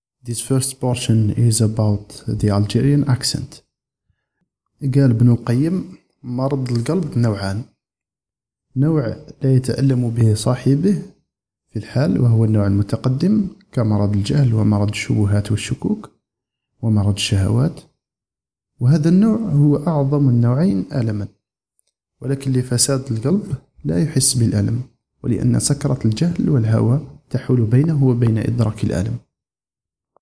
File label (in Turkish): Arapca Erkek Cezayir Arapcasi; seslendirme